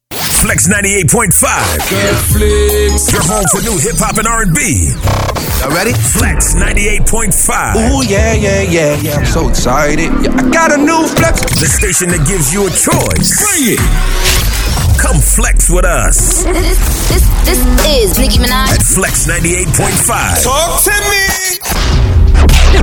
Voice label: VO imaging